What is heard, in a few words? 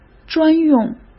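"专用", Mandarin Chinese; chinese-flashcards; hsk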